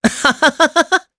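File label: Vox Happy Japanese; Evan; kingsraid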